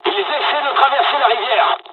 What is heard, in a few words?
dialog, dialogs french; War Thunder